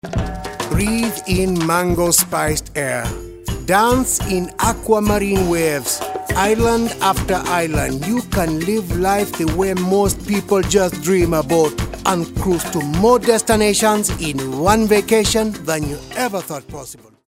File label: jamaican